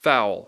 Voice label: "foul", English